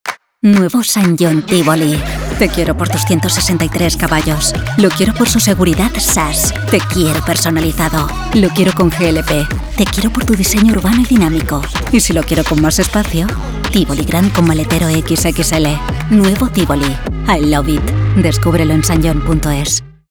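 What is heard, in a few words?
RADIO, Spanish; SSANGYONG TIVOLI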